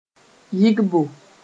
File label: "yɩgbo", Mooré